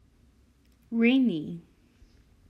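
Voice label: "Rainy", English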